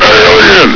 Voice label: "alien!", combine soldier; hl2